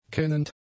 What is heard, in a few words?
"kennend", German; adjectives